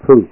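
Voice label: "fu", Japanese; Learn Kana 2.01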